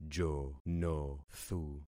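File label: "jonothu", concatenated syllables; Affixing experiment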